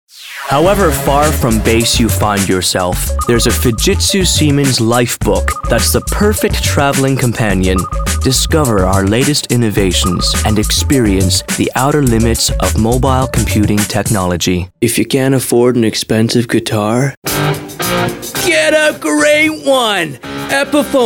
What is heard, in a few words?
commercial male